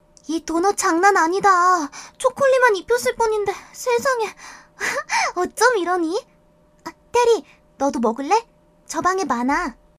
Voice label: 아역 여 명랑한

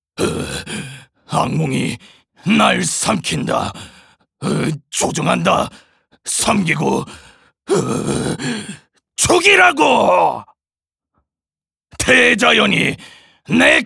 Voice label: 괴물 분노 겁먹음

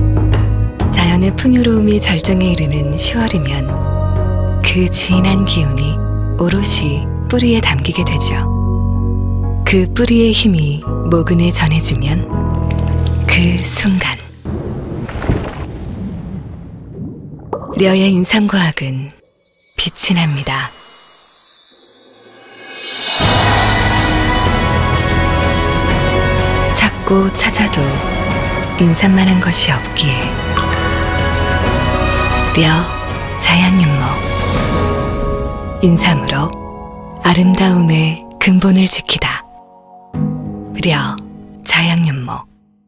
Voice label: 풍부한 30대

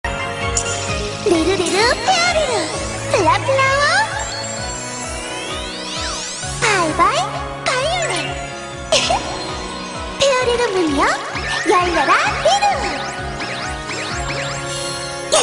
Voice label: 차분한 친절한 10대; 요정숲의요정 페어리루 바이올렛

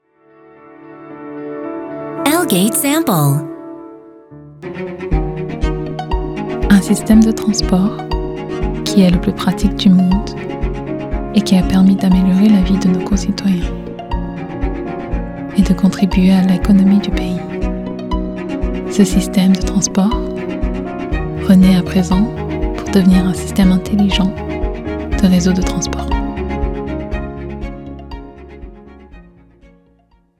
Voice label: 프랑스 여 차분한톤

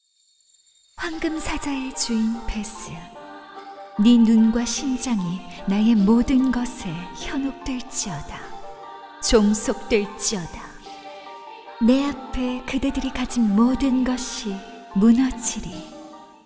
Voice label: 여 게임 신비한 여신 몽환적 고고한 아름다운 여신

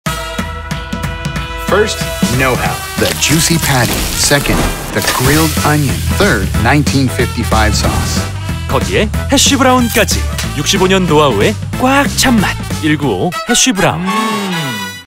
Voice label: 밝은 30대; 65년 노하우로 쌓아 올린 꽉 찬 맛 NEW 1955 해쉬브라운

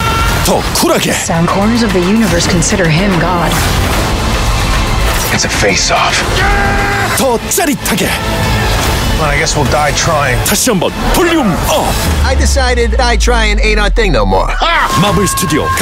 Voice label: CF 강력한 통쾌한; 가디언즈오브갤릭시3

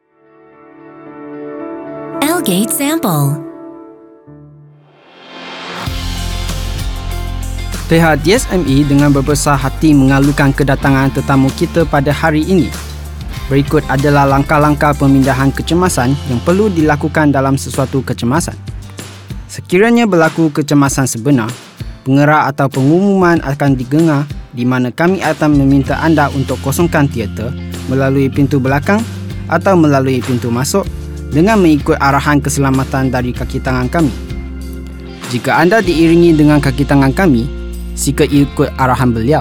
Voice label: 말레이 남 밝은 톤

